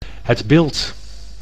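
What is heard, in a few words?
"Het Bildt", Dutch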